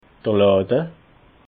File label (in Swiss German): "lada", alsace